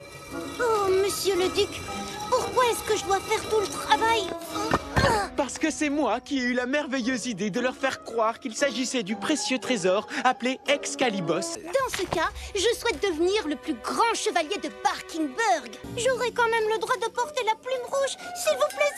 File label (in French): Voix chien; Croc; Pat Patrouille